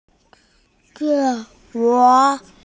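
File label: "jie guo" Chinese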